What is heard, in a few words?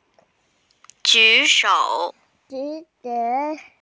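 "raise hand", Mandarin; ju3shou3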